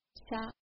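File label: "sha", Japanese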